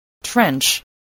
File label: "trench", voice